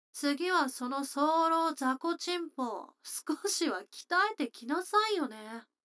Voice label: 女の子 台詞, ASMR

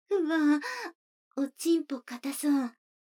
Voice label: 女の子 台詞

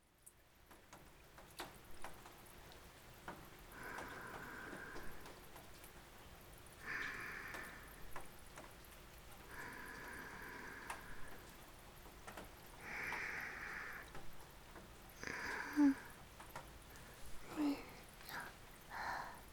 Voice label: 雨降りバージョン, ASMR; 田舎の妹の「何でも言う事聞く券」その２

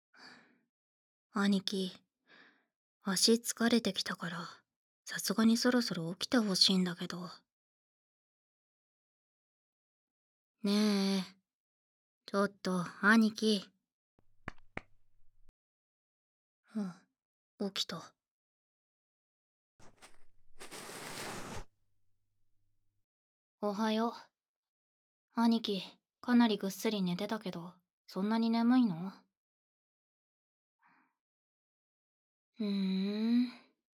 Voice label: 30分後, ASMR

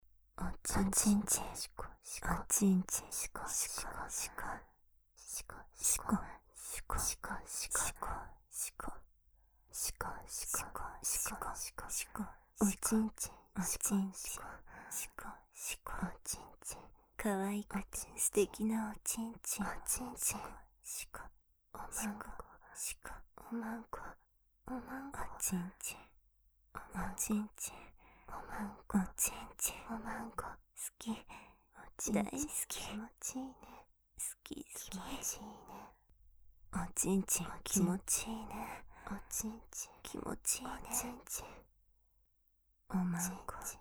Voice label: ループ淫語 両耳; 甘々でクーデレなお姉ちゃんといっしょ

